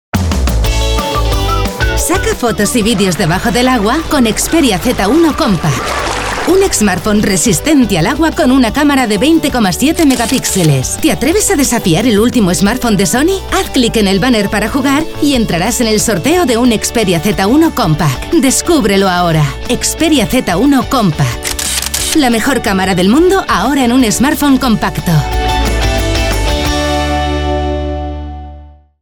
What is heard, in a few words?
Radio Smartphone, jingles; Sony Xperia